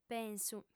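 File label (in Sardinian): "pensu", female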